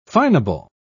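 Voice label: "finable", British English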